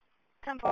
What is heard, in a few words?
Police Sheriff Dispatch